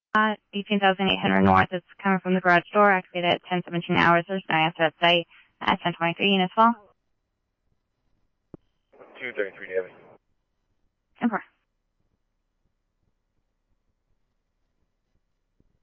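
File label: scanner, Phoenix Police Department; PPD-200 A; RWC; Thompson Peak High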